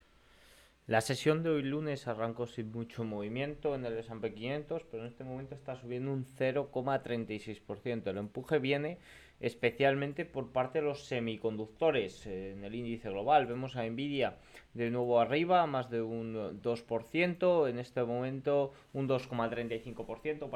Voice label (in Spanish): radio; los semis vuelven a empujar ante la consolidacion de las megatech